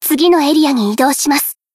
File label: Battle Tactical Action; Miyako; Swimsuit